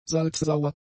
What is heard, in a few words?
"salzsauer", german; adjectives